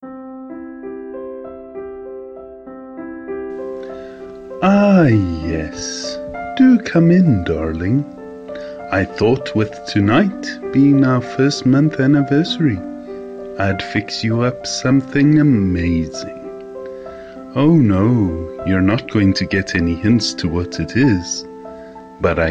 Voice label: romantic teasing fox; Hargan; Vore Readings